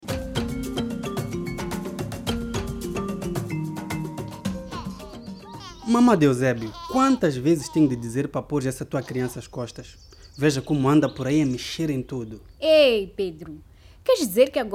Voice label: Radio Play with a child; Mozambique